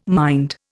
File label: "mind", Indian